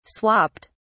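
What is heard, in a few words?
"swapped", British English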